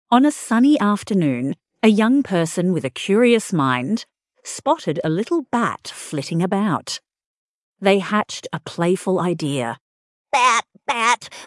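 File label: female Australian story; Bat Bat Come Under My Hat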